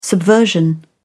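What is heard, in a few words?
"subversion", British English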